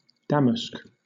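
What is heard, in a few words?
"damask", British English; pronunciations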